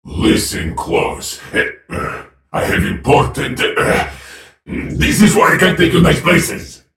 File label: joke, en US; Viktor; Character Voices - English